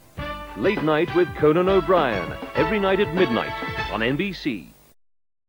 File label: promo late night; nbc super; europe